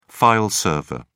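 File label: "file server", English, British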